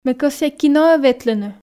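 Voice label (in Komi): ме кӧсъя киноӧ ветлыны, Komi, female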